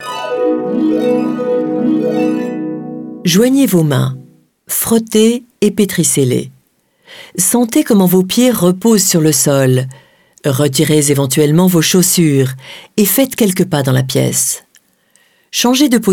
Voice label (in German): Französisch; NAWA